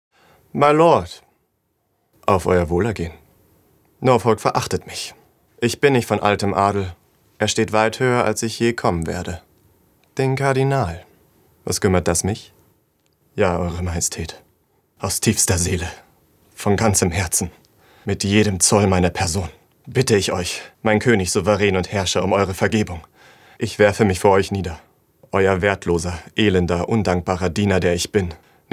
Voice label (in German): Synchron